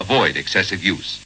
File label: avoid use, MALE SPOKEN WORD; MALE MISC